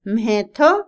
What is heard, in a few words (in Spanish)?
silencio, tranquilo, Otomí, mujer; Percepción; INALI